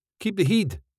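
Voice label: Keep the heid, Scottish English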